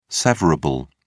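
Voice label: "severable", British English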